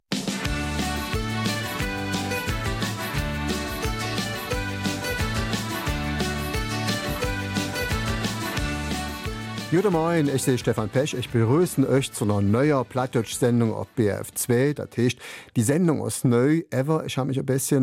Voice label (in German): Eifeler Mundart; Mit dem Rad nach Rom